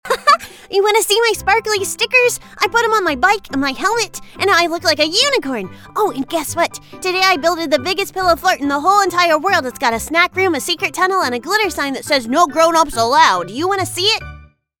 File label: Little Girl Fast, voiceover